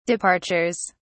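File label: "departures", British English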